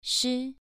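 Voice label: "shi" Mandarin Chinese, second tone, pronunciation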